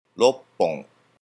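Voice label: "roppon", Japanese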